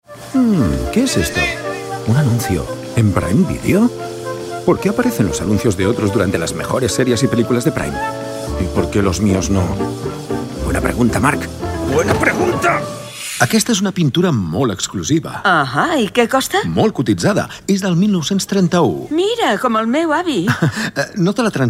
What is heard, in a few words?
spanish male spanish character